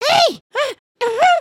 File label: Pick me, emotes